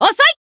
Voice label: Voice attack, jp